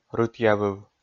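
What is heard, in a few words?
"рытъявыв", Komi, male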